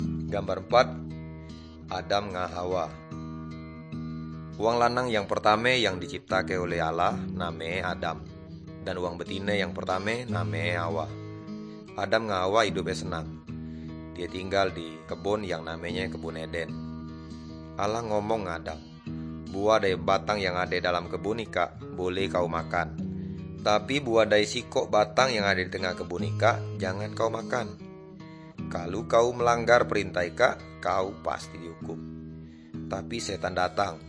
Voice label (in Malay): good news melayu tanjung raja